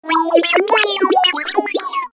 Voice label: alien speech

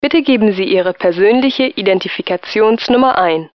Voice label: indication number german

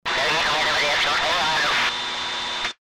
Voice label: battle radio communication

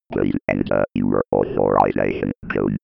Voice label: computer voice authorisation

